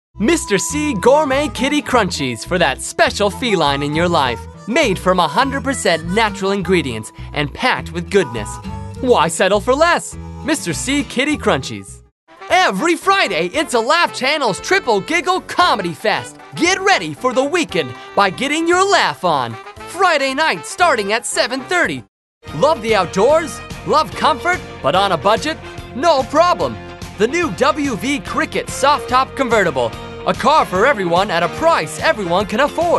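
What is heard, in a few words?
American, TV commercial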